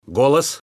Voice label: Male Speak